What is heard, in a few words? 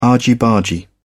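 "argy bargy", British English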